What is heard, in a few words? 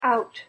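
"out", English pronunciation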